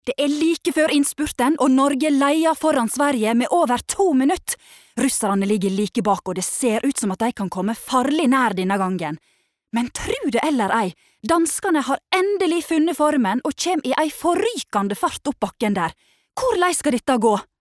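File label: Norwegian dub commentator nordfjordsk nynorsk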